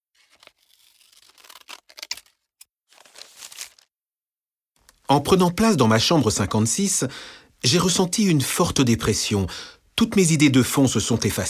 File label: audioguia french